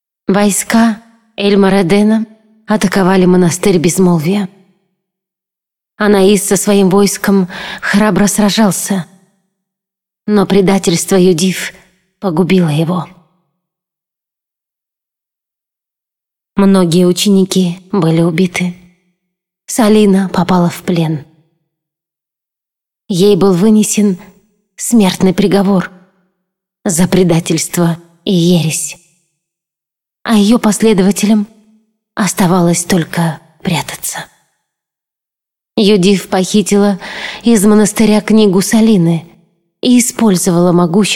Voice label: cinematic voice, ru; lineage2

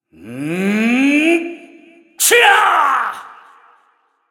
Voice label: cinematic voice; lineage2

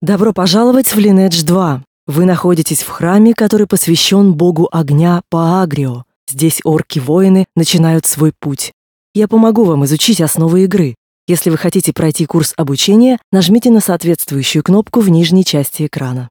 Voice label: tutorial voice ru; lineage2

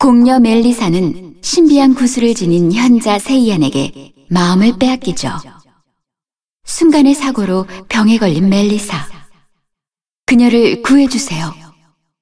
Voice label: cinematic voice; lineage2

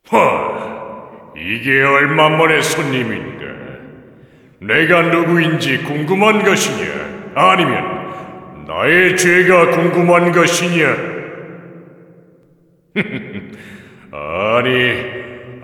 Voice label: cinematic voice; lineage2

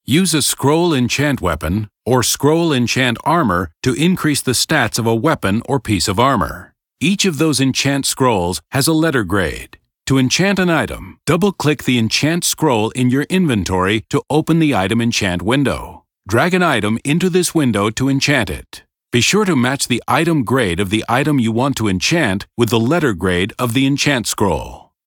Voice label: tutorial voice; lineage2

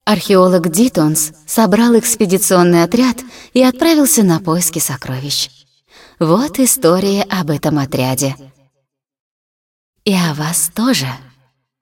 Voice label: cinematic voice, ru; lineage2

